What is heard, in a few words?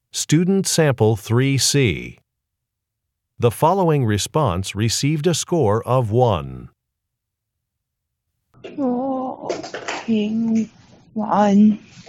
chinese conversation